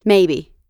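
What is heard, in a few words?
"maybe", American English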